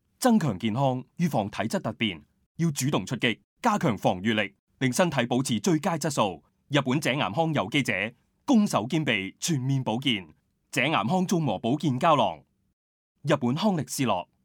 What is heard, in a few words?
CANTONESE